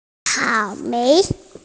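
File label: "cao3mei2", Mandarin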